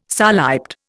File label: "soliped", Indian